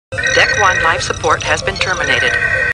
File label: Star Trek Computer Voice; StarTrekLCAR